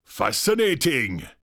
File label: vocal witch doctor dialogue fascinating male dry